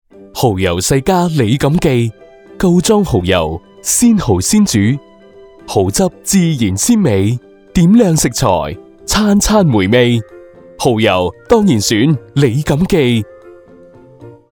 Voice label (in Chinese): 粤语广告; 李锦记